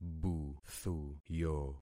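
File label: "buthuyo", concatenated syllables; Affixing experiment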